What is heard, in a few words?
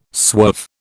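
"swerve", British English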